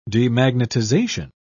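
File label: "demagnetization", British English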